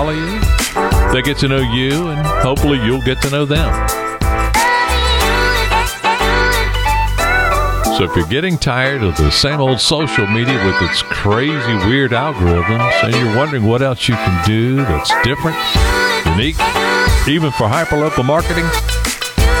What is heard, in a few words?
Podcast Promo